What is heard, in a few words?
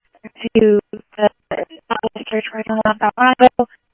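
Simulcast Chandler Patrol A, scanner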